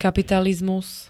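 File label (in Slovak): "kapitalizmus", Slovak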